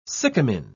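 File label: "sycamine", British English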